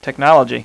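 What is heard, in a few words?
tech male; voice; Web Clip Empire 150,000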